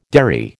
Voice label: "derry", American